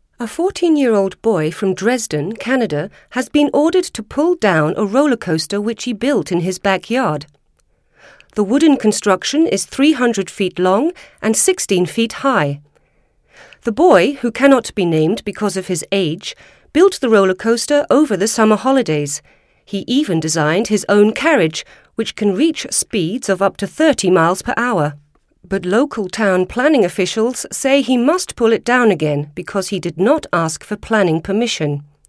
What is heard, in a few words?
Newsreader